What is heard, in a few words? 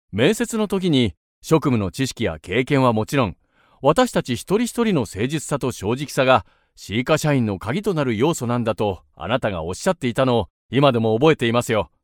JAPANESE MEN